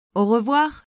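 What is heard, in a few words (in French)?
"au revoir", French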